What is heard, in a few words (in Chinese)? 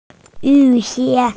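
"yu3 xie2" Mandarin Chinese, female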